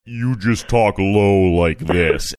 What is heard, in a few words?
saying you just talk low like this low slow